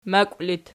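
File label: "makw" locative, Archi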